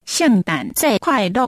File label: christmas taiwanese